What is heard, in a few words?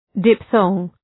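"diphthong", English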